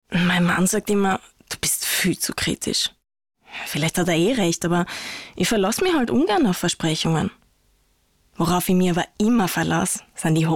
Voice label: burgenlaendischer dialekt

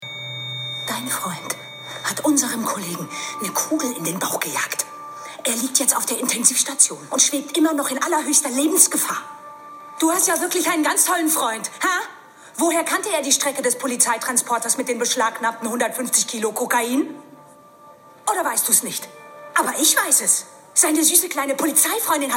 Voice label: Synchron Polizistin

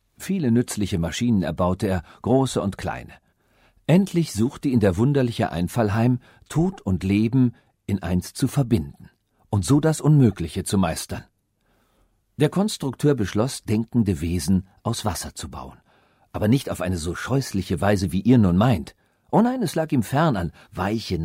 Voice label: hoerbuch roboter maerchen

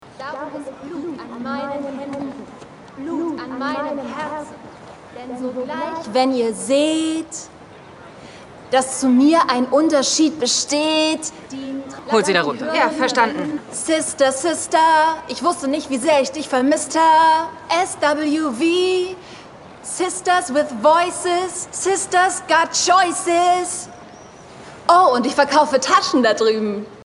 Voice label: Synchron Dramedy Poetry Slam moechtegern cool

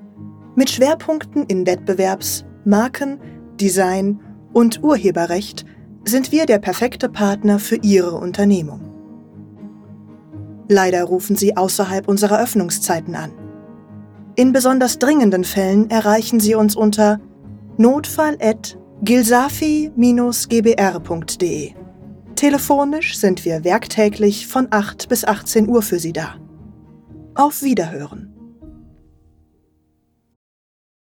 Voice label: Telefonansage Anwalt; LOFT VOICES

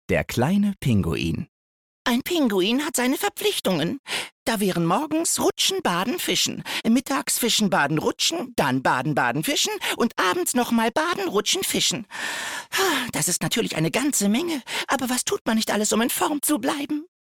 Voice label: trickstimme

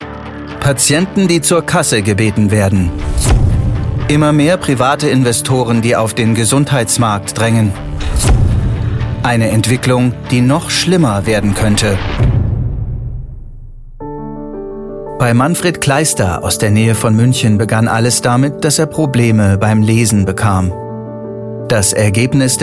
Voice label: TV Beitrag Gesundheitsmagazin, Reportage, Off, Doku, German; GrauerStar

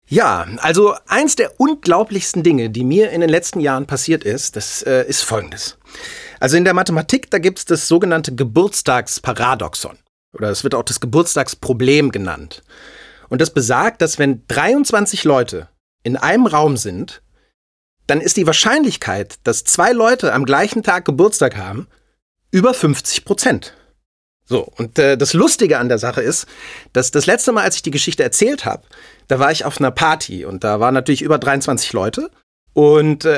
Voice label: natuerliche Stimme

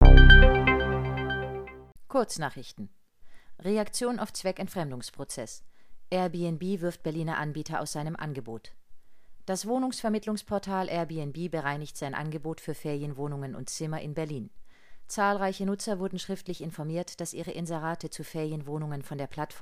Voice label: kurznachrichten; LOFT VOICES

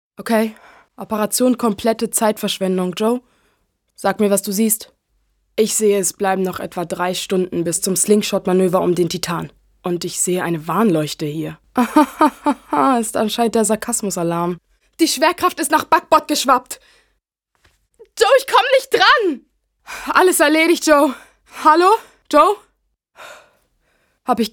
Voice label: Synchron Ingenieurin Drama

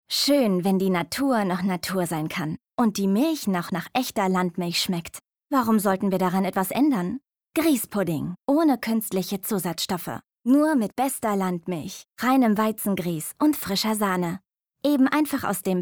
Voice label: werbung griesspudding

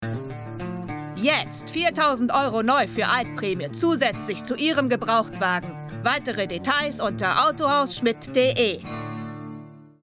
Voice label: Sprachprobe Spot Auto

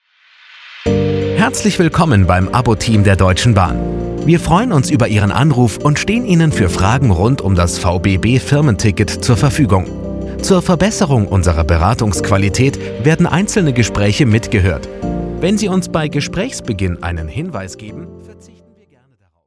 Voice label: Telefonansage; DB

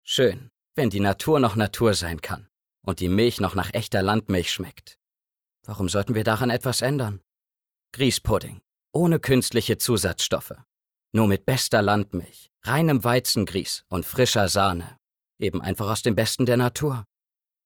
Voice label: werbung griesspudding

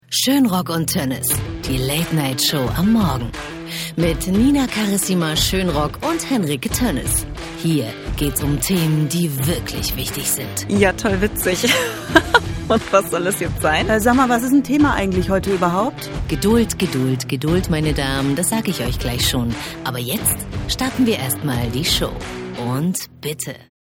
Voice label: stationvoice podcast

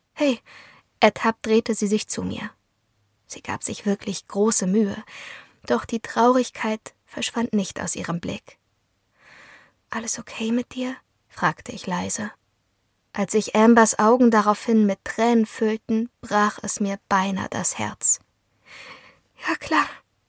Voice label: Hoerbuch; what if we stay

